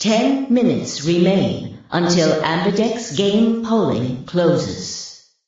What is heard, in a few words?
announcer vote closing in 5 min